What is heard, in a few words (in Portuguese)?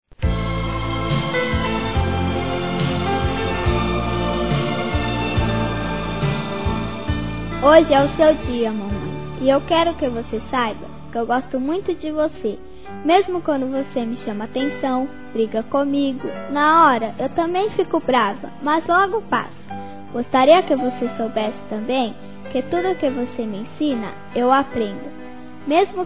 Dia Das Mães Para Mãe Feminino; Telemensagens 2017